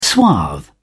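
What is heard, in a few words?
"suave", British English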